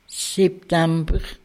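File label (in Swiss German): "Septamber", Alsatian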